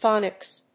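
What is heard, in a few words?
"phonics", English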